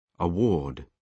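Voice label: "award", British English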